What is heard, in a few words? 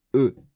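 "eux", French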